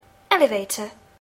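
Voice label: "elevator", English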